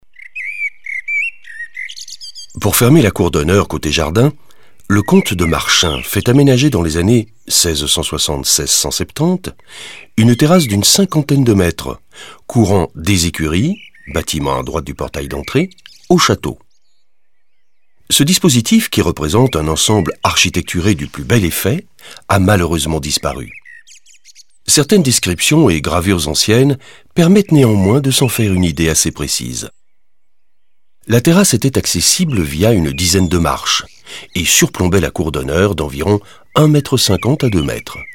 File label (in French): audioguide fr adulte; modave